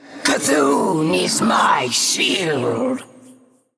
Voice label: Female Undead